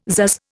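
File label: "pzazz", Indian English; Dictionary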